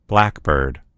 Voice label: "blackbird", en US; gcompris